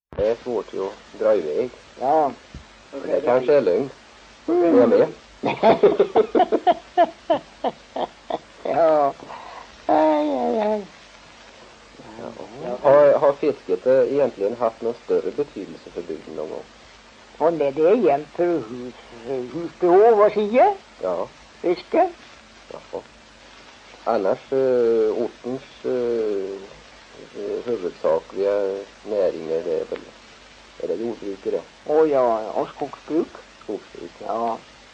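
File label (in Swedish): Sillerud Värmland, dialekter; Folkminnen; Svenska sagor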